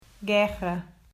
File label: "Guerra", Portuguese